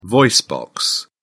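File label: "voice box", British English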